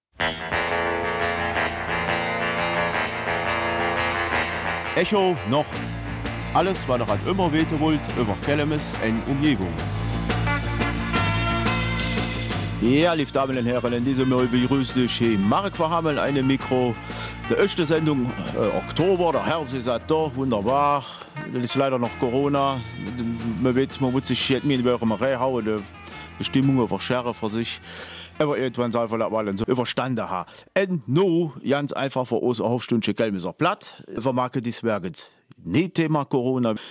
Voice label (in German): Kelmiser Mundart; Theaterstück Zinc in der Kelmiser Patronage